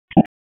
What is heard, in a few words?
Police North District Tac 1, p25; wake p25